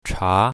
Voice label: "cha2", Mandarin